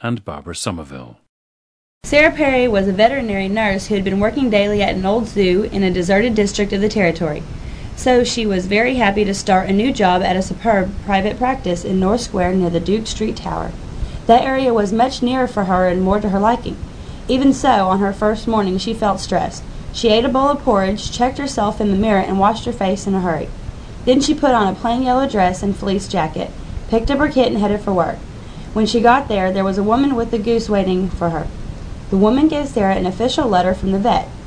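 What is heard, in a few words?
texas, International Dialects of English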